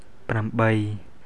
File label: khmer eight